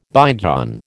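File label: "bygone", American English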